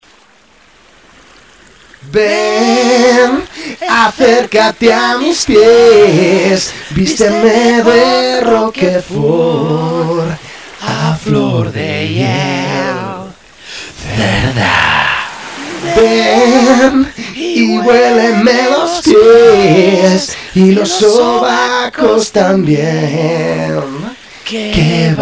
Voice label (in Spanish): Publicidad; Eau cerda